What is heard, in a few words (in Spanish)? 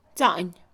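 "culebra", Mixe, female; Mujer; Animales; INALI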